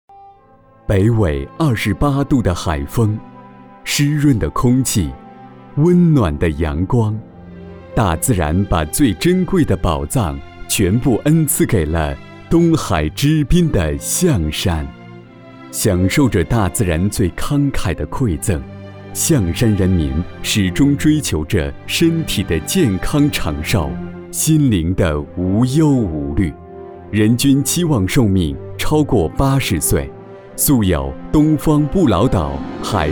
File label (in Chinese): 专题 大气 抒情